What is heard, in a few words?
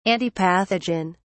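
"antipathogen", British English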